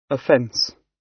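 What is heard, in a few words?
"offence", British; Crime and punishment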